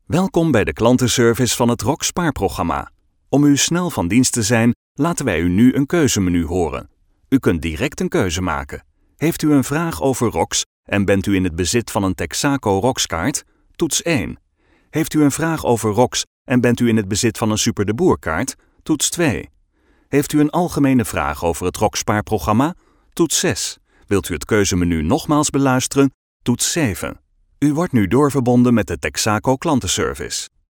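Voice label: Dutch IVR; IVR/Phone systems; Male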